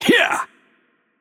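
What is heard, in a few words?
Russian attack heavy voice; bloom minigame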